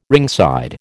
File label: "ringside", American